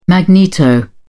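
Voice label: "magneto", British English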